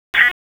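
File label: Dispatch 1, P25; wake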